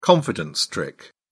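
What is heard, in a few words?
"confidence trick", British English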